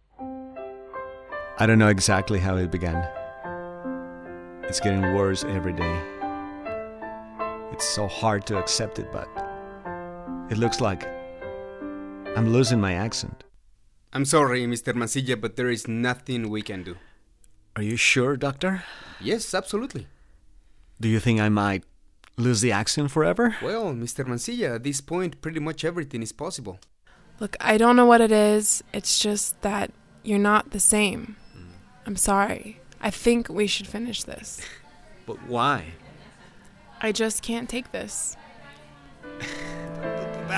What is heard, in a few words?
spanish accented english